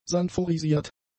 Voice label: "sanforisiert", german; adjectives